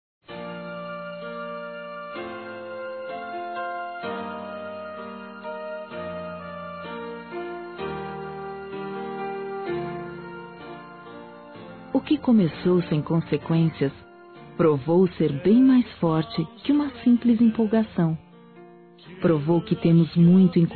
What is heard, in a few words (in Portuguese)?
NAMORO F; Aniversários; ANIVERSARIO romantico; fem